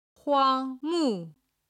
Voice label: "huang1 mu4", Chinese